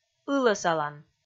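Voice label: "ылӧсалан", Komi, female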